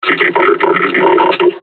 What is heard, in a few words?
taunt, combine charger; Half Life Alyx